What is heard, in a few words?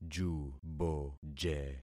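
"juboje", concatenated syllables; Affixing experiment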